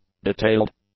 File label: "detailed", english; adjectives